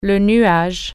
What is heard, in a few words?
cloud, french